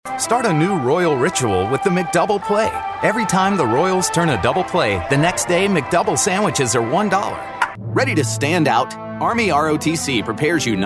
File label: Commercial